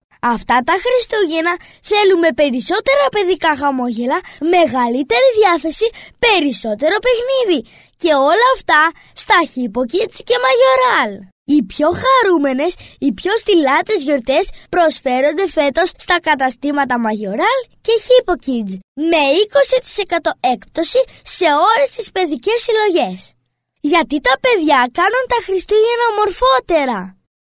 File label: greek boy; dictor